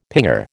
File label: "pinger", American